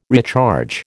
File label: "recharge", American